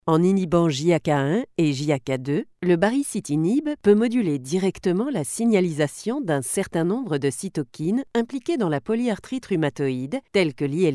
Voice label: E-LEARNING MEDICAL